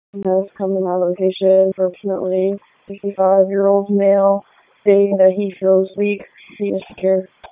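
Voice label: Police Southwest District Dispatch; Raleigh; wake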